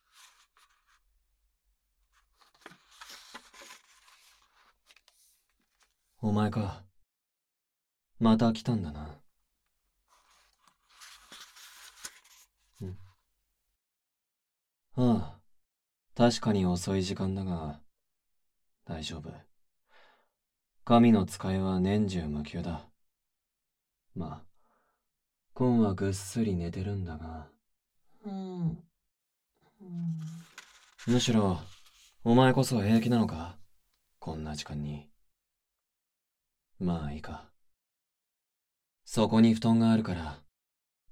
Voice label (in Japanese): 添い寝; ギン